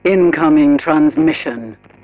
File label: advisor notification incoming transmission, militarist; Stellaris; ethics